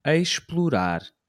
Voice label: "explorar", Portuguese